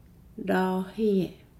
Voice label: "drought", Nukuoro